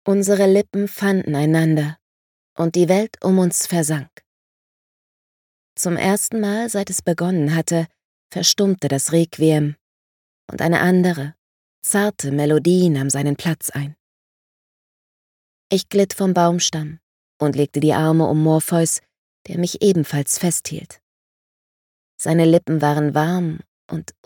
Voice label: Romance, sprecherin

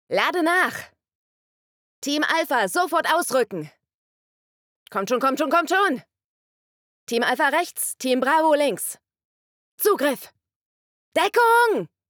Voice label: Gaming Action Phrasen

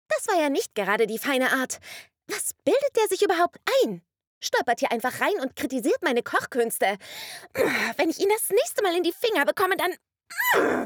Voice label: Anime wuetend

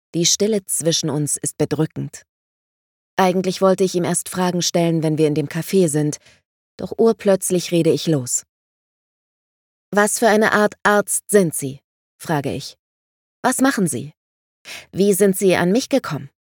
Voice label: Thriller, sprecherin

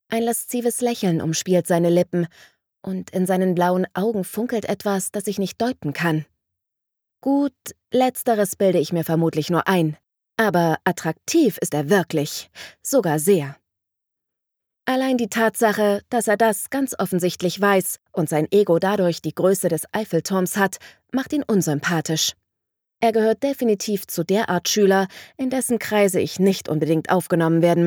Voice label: Jugendroman, sprecherin

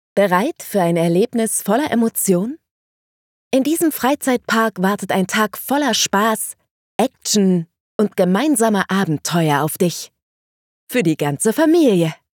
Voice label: Freizeitpark, Sprecherin

